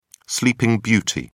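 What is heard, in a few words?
"sleeping beauty", British English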